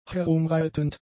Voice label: "herumreitend", German; adjectives

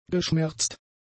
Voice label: "geschmerzt", German; adjectives

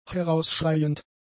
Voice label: "herausschreiend", German; adjectives

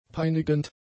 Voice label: "peinigend", German; adjectives

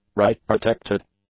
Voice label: "write-protected", english; adjectives

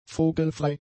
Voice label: "vogelfrei", German; adjectives

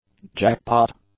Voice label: "jackpot", English; nouns

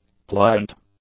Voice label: "pliant", english; adjectives

